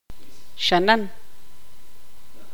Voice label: tzutujil; zancudo

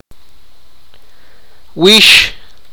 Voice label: sipakapense; cat

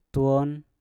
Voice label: "twon", Mpur; bintang

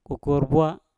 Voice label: "kokour bwa", Mpur; telur ayam

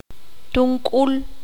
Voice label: "Tambor", Q'anjob'al

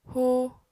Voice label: "huu", Apatani

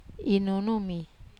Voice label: "nunu", Wamesa

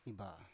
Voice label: "iba", Biak

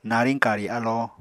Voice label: "nariñ kari alo", Apatani

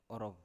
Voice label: "orov", Biak

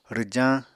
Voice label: "rwjañ", Apatani

